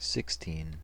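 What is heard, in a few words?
"sixteen" English, US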